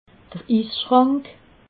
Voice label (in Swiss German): "isschrank", alsace